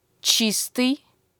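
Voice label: "clean", Russian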